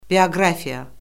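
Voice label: "bioqrafiya", Azerbaijani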